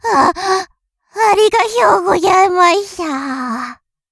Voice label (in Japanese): 厳しい特訓に耐えお礼を言いながら失神